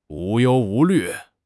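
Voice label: "无忧无虑", Mandarin Chinese; HSK6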